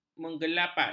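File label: "mengelepat", Malay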